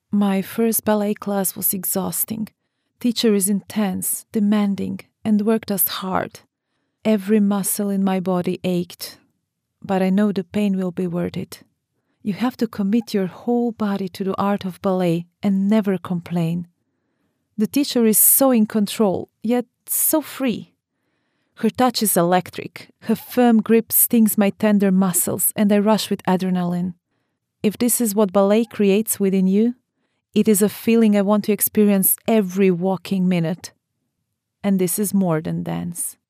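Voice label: Croatian, Female, English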